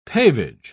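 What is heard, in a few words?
"pavage", British English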